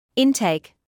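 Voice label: "intake", British English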